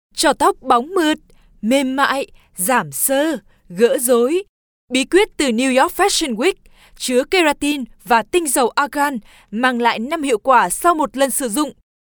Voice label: TVC vietnamese

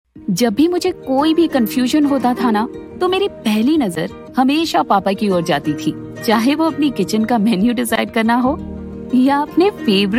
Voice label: Advertisement Hindi, Female

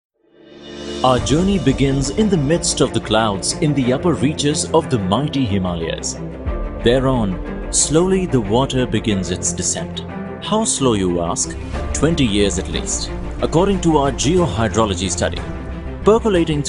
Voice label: corporate eng

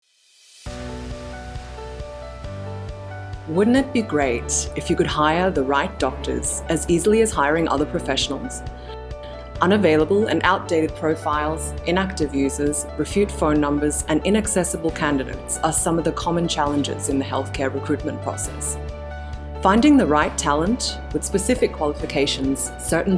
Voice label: Australian

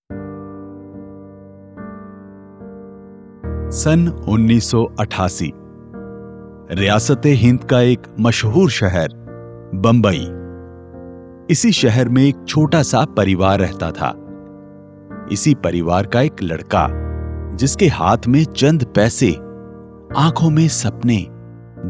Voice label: hindi; denyube

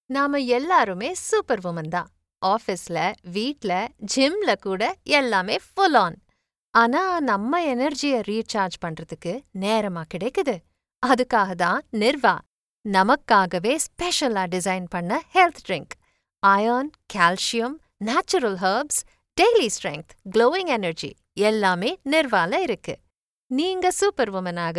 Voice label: Commercial Tamil

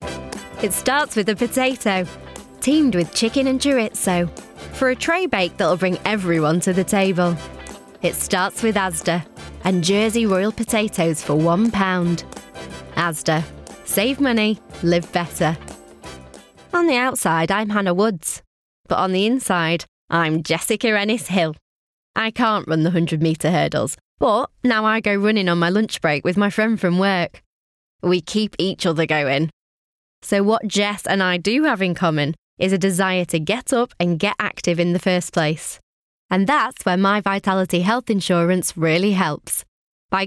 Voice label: explainers

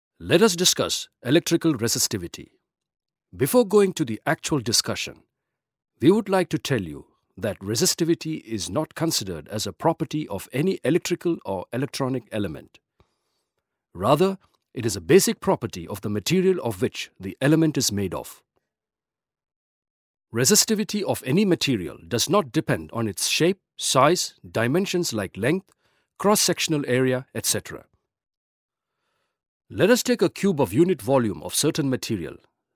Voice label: Elearn Eng

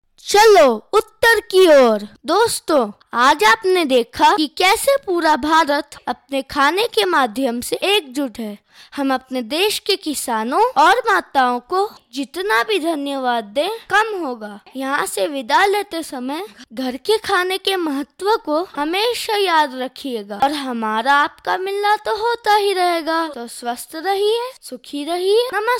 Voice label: BOY HINDI

